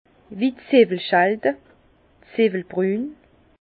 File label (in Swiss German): "zewwelschalde", Bas Rhin; vin; pelure d’ognon